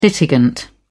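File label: "litigant", British English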